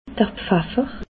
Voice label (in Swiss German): "pfaffer", alsace